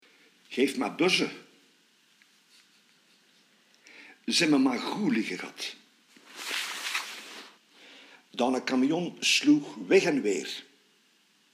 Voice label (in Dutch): Dilbeeks dialect